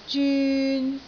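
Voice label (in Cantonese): "zyun3", Cantonese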